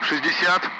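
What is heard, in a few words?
Speech, Russian, Numbers, 60; Allied Flight; DCS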